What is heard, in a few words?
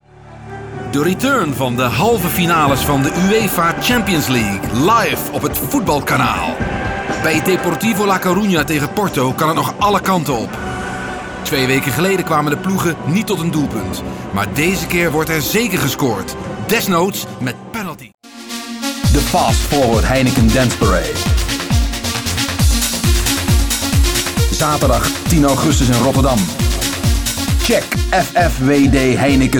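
Dutch, Commercial; GoLocalise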